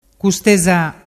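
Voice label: "custeza", Vernazzese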